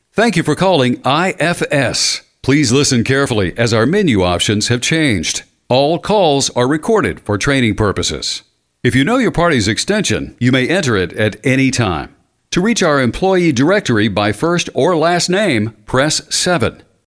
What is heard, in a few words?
Leasing Phone Prompt Male